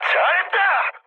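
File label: Korean bomb success mood high; War Thunder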